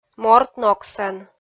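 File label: "морт ногсӧн" Komi, female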